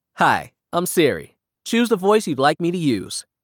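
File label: American English; en-US; iOS Sounds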